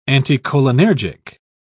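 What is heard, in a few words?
"anticholinergic", English, British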